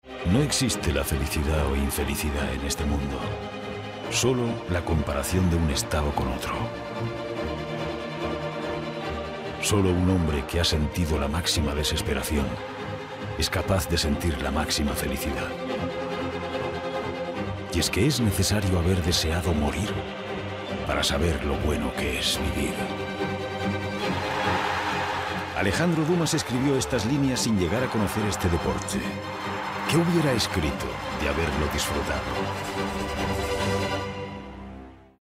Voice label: male spanish sports comercial; ideasonora